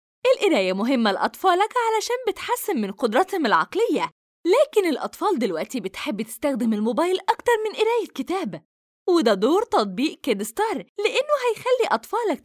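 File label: Arabic, Saudi Arabia, female, voice over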